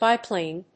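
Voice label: "biplane", English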